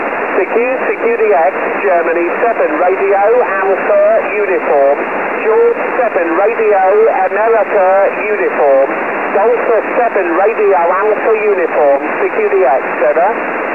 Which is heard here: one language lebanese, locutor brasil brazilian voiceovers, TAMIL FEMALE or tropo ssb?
tropo ssb